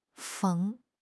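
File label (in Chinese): "逢", Chinese; HSK6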